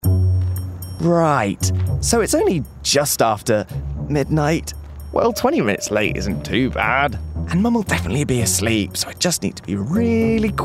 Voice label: male english door sensor commercial; voices; hive; devine voices